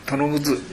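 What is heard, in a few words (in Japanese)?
"tonoguzu", 南山形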